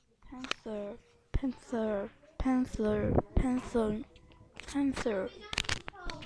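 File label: "pencil", spoken word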